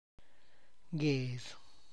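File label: cigarette, Tlacochahuaya Zapotec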